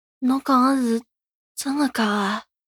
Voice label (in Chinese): 男孩 对话